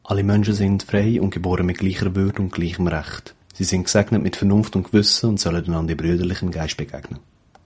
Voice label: swiss german